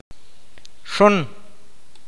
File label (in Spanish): "chica", awakateko